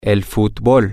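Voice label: Spanish, male; soccer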